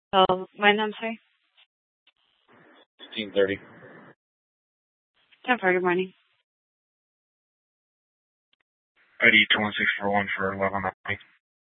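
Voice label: Police Department Dispatch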